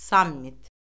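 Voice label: "sammit", Azerbaijani; Orfoqrafiya Lüğəti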